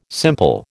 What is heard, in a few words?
"simple", American